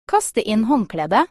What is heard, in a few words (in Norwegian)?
kaste inn håndkledet, Norwegian